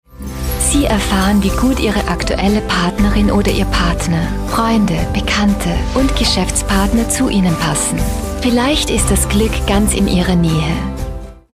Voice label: german female commercial